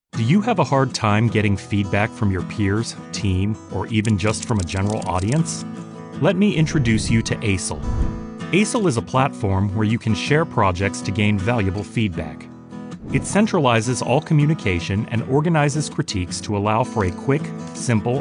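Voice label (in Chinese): 外籍男声 美式英语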